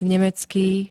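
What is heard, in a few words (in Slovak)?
"nemecký", Slovak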